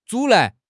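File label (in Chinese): "阻拦", Chinese; HSK6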